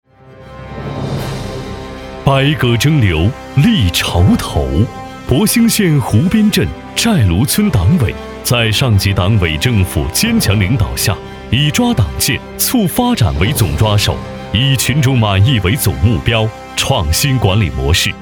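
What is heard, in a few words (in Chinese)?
男党建厚重雄伟; 博兴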